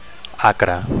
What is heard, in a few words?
"acre", Catalan